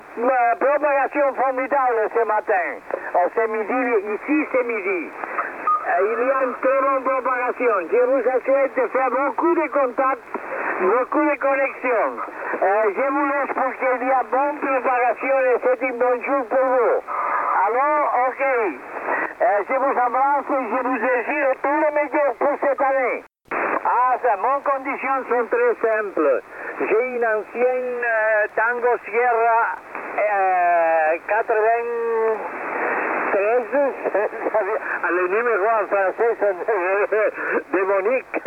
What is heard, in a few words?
qso